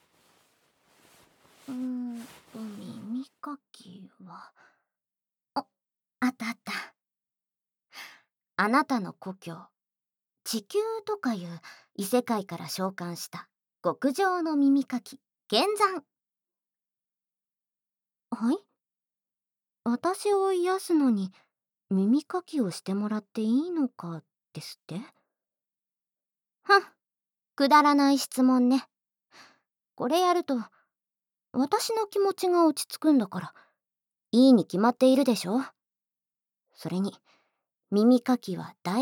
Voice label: 耳かき 耳ふー 甘いトーク 吐息, ASMR; 大好きなあなたを癒させて!

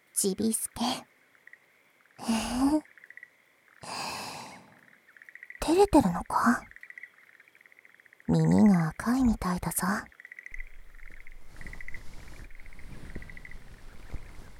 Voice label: 言葉攻め添い寝; 安眠パート

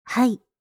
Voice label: "はい", システムボイス; Windows等向けシステムボイス

